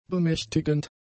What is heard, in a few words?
"bemächtigend", German; adjectives